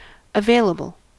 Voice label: "available", us